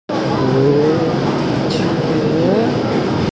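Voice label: "er3qie3", Mandarin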